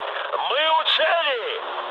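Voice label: Russian near waypoint mood high, speech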